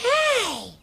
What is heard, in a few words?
"hey", Voice